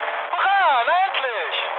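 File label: German enemy detected mood med, speech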